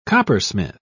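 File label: "coppersmith", British English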